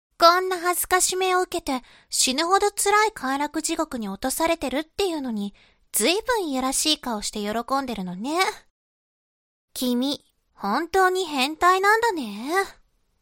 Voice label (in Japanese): 懲罰中音声, ASMR